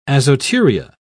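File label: "azoturia", British English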